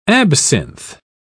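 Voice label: "absinth", British English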